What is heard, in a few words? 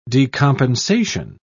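"decompensation", British English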